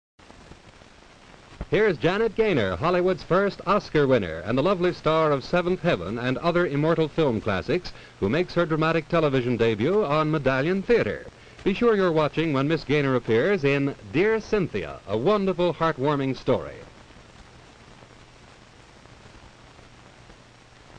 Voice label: Television Public Service Announcements and Program Promos